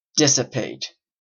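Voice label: "dissipate", Canadian English; pronunciations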